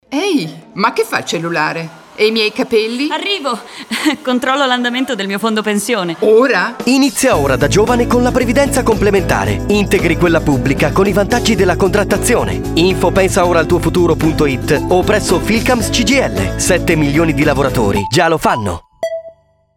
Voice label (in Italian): Radio; 20s; Filcams CGIL; Parrucchiera